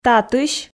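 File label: "татысь", Komi, female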